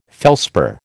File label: "felspar", American